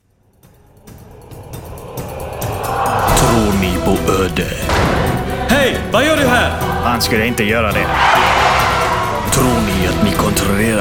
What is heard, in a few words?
swedish movie trailers